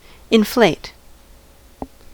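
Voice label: "inflate", US English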